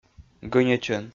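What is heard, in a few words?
"гӧньӧчӧн", Komi, male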